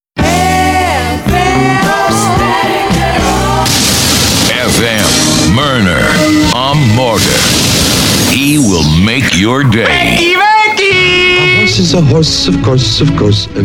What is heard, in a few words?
Spot